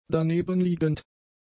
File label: "danebenliegend", German; adjectives